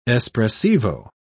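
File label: "espressivo", British English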